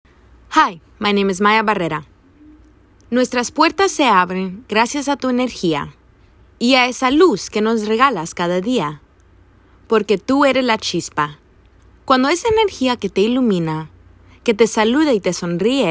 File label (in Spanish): SPANISH